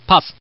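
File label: Man, "don't want"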